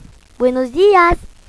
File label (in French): "buenos dias", Espagne; Madrid